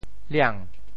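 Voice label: "liang2", Teochew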